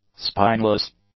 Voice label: "spineless", english; adjectives